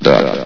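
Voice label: "duct", announcer voice; Source Engine; bshift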